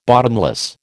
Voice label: "bottomless", American